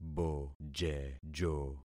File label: "bojejo", concat syllables; Affixing experiment